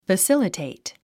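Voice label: "facilitate", English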